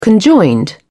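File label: "conjoined", British English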